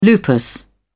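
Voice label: "lupus", British English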